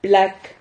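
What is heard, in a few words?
"plac", Spanish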